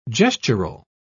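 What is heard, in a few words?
"gestural", British English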